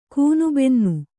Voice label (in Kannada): "ಗೂನುಬೆನ್ನು", Kannada